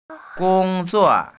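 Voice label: "gong1zuo4" Mandarin Chinese, female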